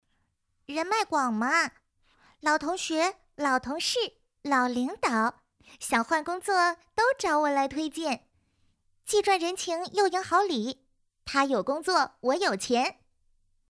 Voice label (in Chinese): 童声